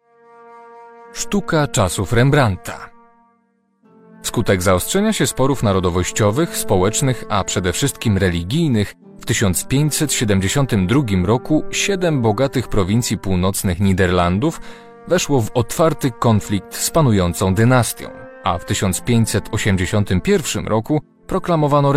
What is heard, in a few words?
audiobook